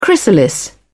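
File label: "chrysalis", British English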